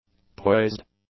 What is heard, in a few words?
"poised", english; adjectives